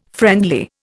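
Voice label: "friendly", Indian English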